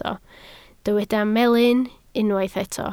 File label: "melyn", Welsh